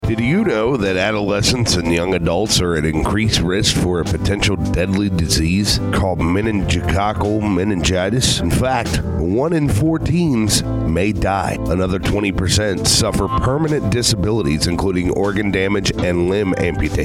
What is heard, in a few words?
male PSA